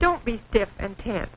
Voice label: dont be stiff tense, Quirky Female Typing Instructor; VOCALS and SPOKEN WORD